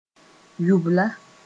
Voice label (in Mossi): "yubla", moore